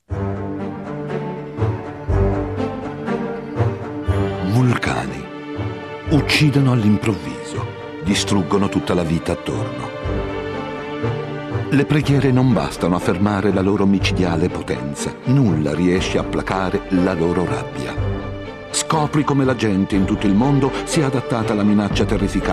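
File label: Italian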